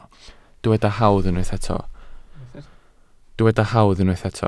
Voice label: "hawdd", Welsh